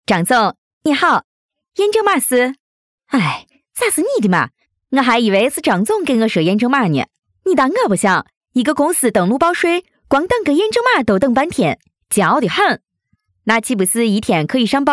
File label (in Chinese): 女 陕西话; 陕西省电子税务局